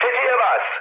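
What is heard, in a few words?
attack position, mood high, Turkish; speech; War Thunder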